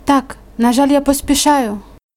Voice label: dialogue, Ukrainian